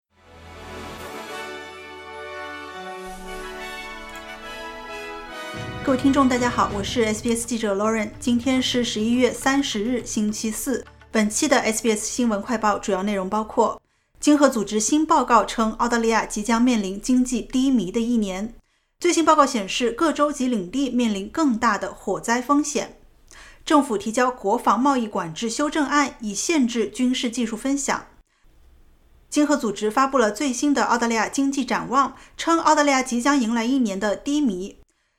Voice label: mandarin news flash; 经合组织：澳大利亚即将面临经济“低迷”的一年; SBS Chinese; SBS新闻快报